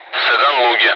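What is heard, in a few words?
Speech, Russian, air traffic control; Callsign; Soganlug; DCS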